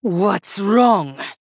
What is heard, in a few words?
Female Blood Elf Play